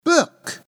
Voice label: ENG book

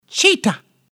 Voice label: ENG cheetah

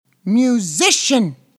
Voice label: ENG musician

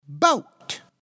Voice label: ENG boat

